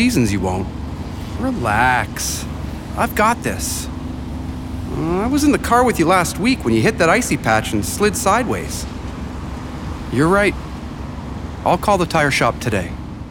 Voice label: Winter Tires Radio Spot